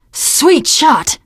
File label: nice shot, teen girl; Left 4 Dead 2